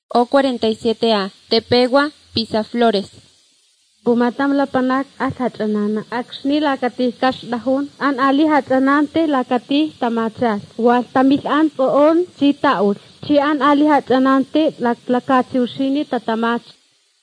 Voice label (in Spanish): spanish mexico